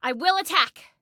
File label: Self Attack Attack, Female; Female 02